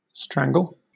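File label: "strangle", British English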